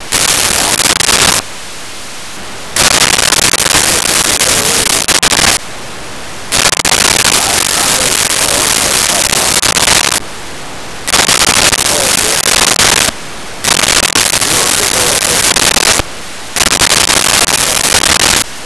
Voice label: Police Radio Southern; Vocals & Wallas; SONY PICTURES SOUND EFFECTS SERIES